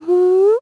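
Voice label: Vox Happy, Japanese; Erze; kingsraid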